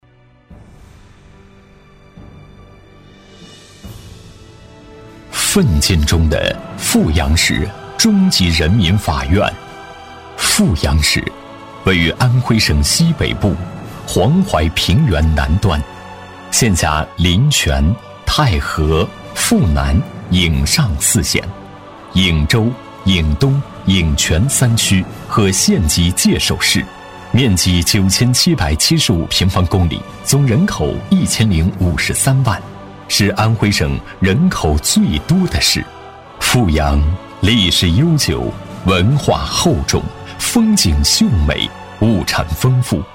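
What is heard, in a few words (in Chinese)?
男国大音; 阜阳市中级人民法院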